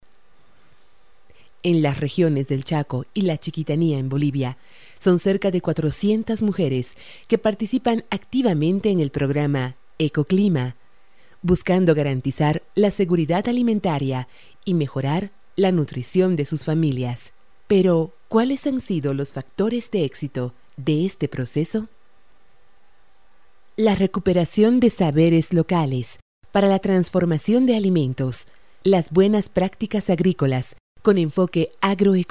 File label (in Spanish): documental